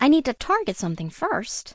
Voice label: Gnome Female error generic no target